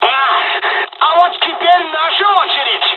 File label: dialog, dialogs russian; War Thunder